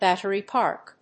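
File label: "Battery Park", English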